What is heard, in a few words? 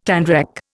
"tanrec", Indian